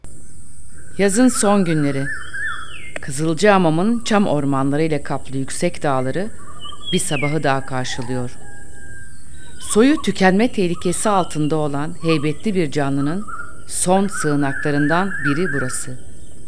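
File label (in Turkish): belgesel, Turkish, Female Middle Age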